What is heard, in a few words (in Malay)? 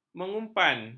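"mengumpan", Malay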